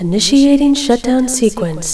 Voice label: shutdown, Automated computer voice; sound effect